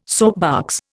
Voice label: "soapbox", Indian